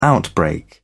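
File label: "outbreak", British English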